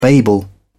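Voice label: "babel", British English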